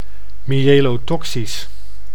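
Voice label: "myelotoxisch", Dutch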